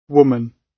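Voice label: "woman", British English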